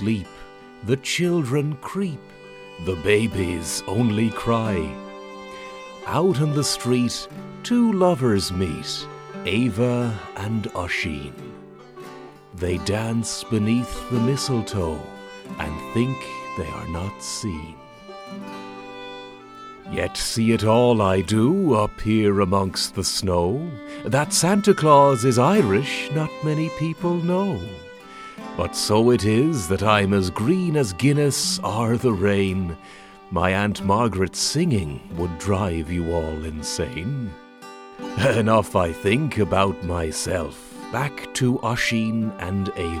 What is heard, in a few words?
Christmas Bells Example Track with Narration